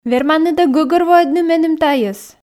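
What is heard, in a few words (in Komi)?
верманныд-ӧ гӧгӧрвоӧдны меным тайӧс, Komi, female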